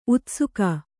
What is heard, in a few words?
"ಉತ್ಸೇಕ", Kannada